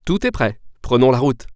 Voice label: Start Drive, french; waze

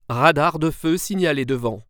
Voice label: Approach Red Light Cam, french; waze

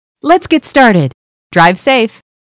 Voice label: Start Drive, eng; waze

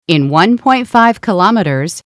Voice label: "1500 meters", eng; waze

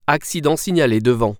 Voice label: Approach Accident, french; waze

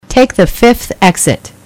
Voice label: Fifth, eng; waze

